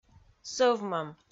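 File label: "сӧвмӧм", Komi, female; audio dict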